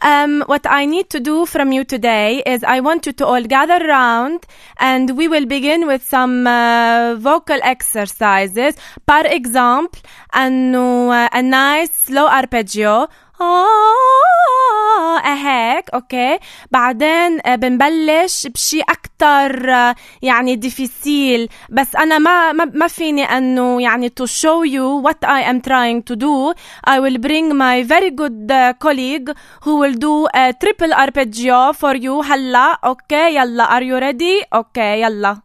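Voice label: Arabic, English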